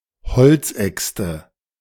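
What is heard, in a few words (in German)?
"Holzäxte", German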